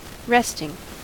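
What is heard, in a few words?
"resting", US English; pronunciations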